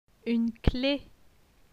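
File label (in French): "Une clef", French; phonetique; les voyelles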